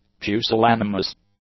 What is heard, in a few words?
"pusillanimous", english; adjectives